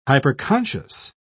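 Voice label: "hyperconscious", British English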